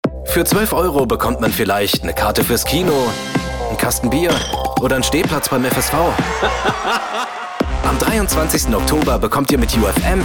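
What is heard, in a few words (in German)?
Werbung, Cool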